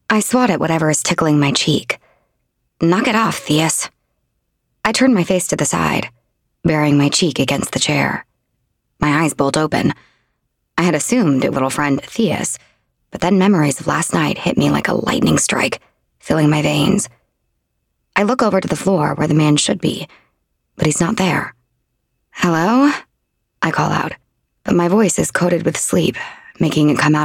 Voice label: Paranormal romance 1st POV snarky sassy dark comedy DUET narration